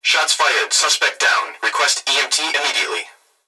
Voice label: Emergency Human Young Male Voice Police Radio Shots Fired Suspect Down Request EMT Immedia; Request EMT Immediately